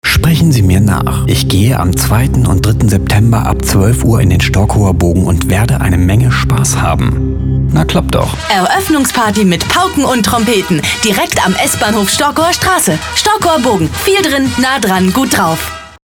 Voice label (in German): Radiospot